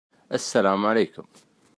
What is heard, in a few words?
Hello Arabic